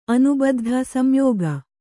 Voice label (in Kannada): "ಅನಿಬದ್ಧ", Kannada